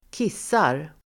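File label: "kissar", Swedish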